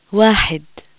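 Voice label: Arabic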